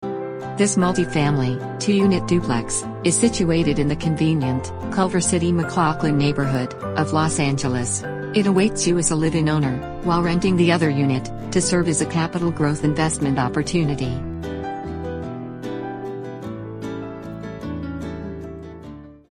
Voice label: website voice